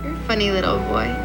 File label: funny little boy